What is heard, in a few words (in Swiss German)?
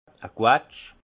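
"quetsch", alsace Haut-Rhin; vin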